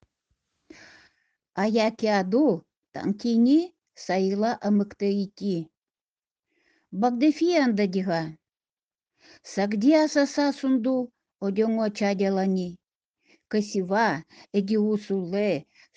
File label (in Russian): Удэгейский